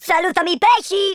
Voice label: fatality, speech Italian

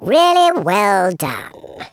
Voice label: "excellent", Patronising speech

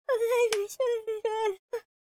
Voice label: pray, speech, Hippy

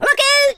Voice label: fire, Scotsman; speech

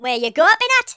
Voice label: "go away", Geordie; speech

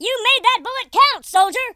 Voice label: perfect, Drill Sergeant; speech

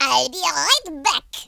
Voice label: revenge, speech, French

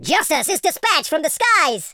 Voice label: drop, speech, Super Hero

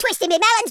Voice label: "coward", Madchester

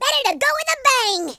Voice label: kamikaze, American Wrestler

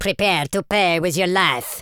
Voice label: kamikaze, Super Villain, speech

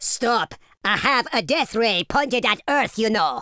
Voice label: leave me alone, Super Villain, speech

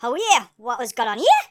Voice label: "drop", Geordie; speech

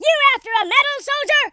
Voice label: brilliant, Drill Sergeant; speech

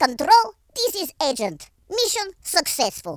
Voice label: victory, Russki

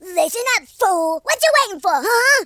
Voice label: "hello", American Wrestler

